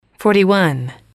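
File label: forty One, english, female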